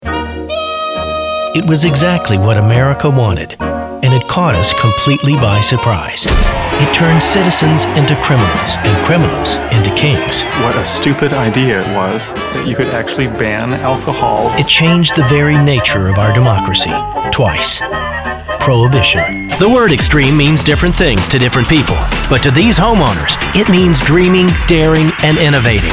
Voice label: narration